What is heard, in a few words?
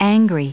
"angry"